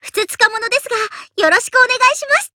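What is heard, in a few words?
OPERATION BEGIN Japanese; GG elfeldt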